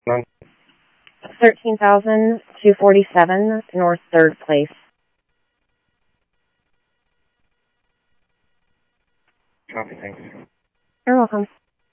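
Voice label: Phoenix police department, scanner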